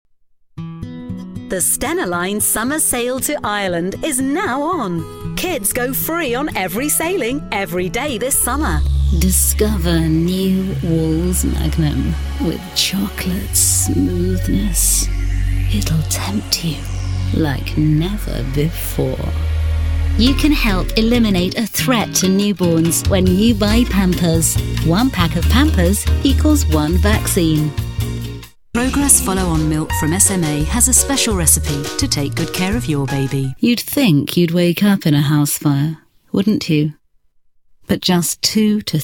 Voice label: uk commercial, female; english-uk